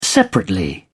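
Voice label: "separately", British English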